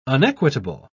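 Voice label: "unequitable", British English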